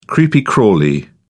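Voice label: "creepy crawly", British English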